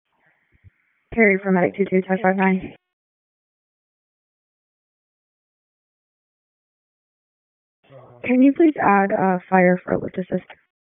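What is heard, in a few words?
Public Safety Tactical, p25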